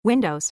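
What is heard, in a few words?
English Windows; EFI Audio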